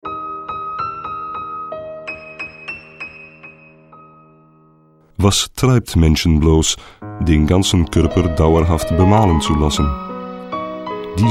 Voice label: akzent ruhig

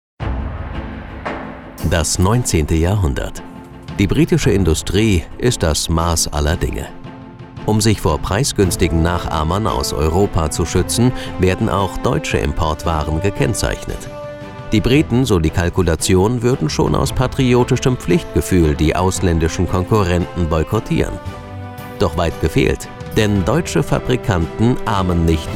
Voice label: doku; made in germany

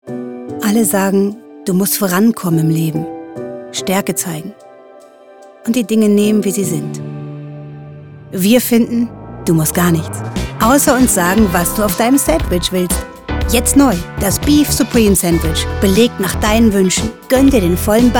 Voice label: Sandwich Werbung

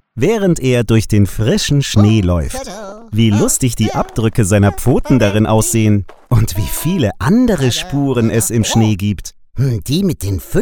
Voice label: hoerbuch kinder alle stimmen; hase faehrt ski; Sprecher in Berlin, Frankfurt a. M., Hamburg, München, Nürnberg, Stuttgart

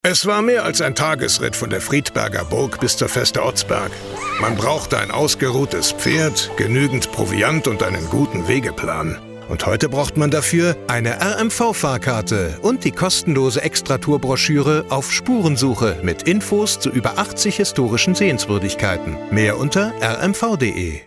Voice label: werbung

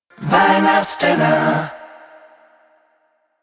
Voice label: weihnachtsdinner nur stimme

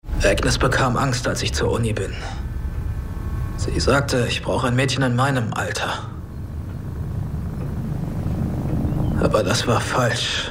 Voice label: synchron; clique; rolle calum mcgowan

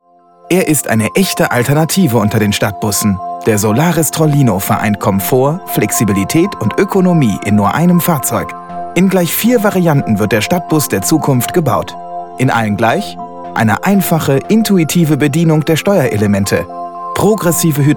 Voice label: imagefilm

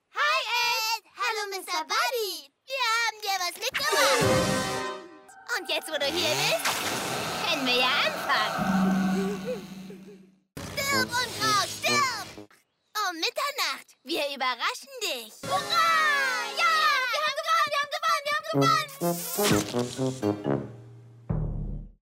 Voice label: zeichentrick

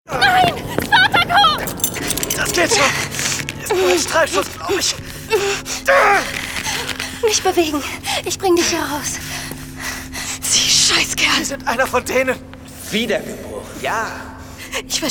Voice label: hoerspiel; imperator staffel 2

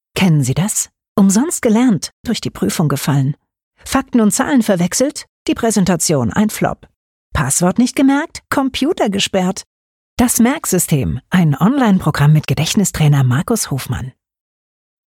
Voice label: werbung trailer; talents

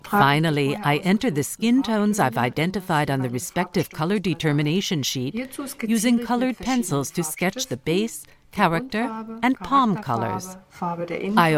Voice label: erklarvideo

